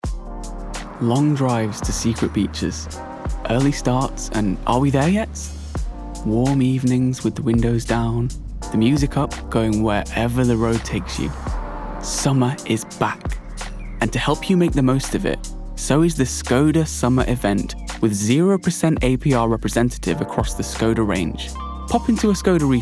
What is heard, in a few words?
male english neutral northern accent commercial